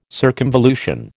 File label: "circumvolution", American